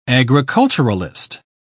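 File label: "agriculturalist", British English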